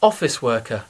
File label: "office worker", English